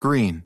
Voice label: green, American